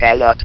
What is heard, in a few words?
"cannot", votrax; VIDEO GAMES